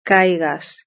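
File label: "caigas", Spanish; verb-conjugator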